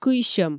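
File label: "кыйсьӧм", Komi, female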